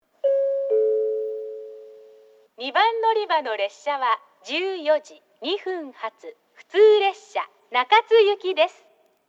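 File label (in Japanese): 2番のりば停車中案内放送, 女声; 行橋; 普通 中津行き; 放送更新で、トーンが低くなっています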